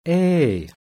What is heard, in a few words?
falling-contour close-mid front unrounded; IPA